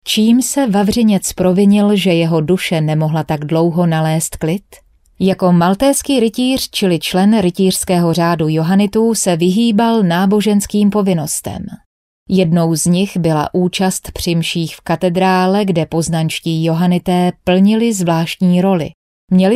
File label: female Czech smooth, commercial, recited